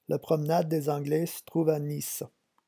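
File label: "la promenade", French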